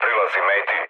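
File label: Serbian near waypoint mood low; speech; War Thunder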